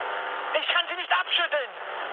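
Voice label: German aircraft damaged mood med, speech; War Thunder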